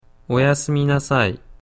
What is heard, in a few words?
"oyasuminasai", Japanese